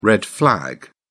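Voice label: "red flag", British English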